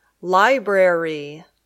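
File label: "library", English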